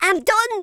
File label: "kamikaze", Madchester